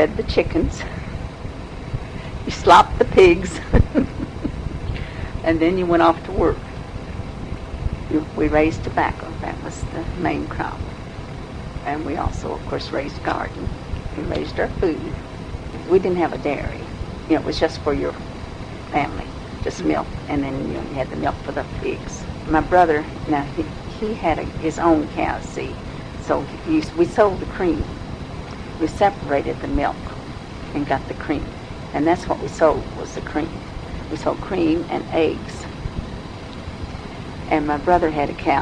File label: kentucky, dialects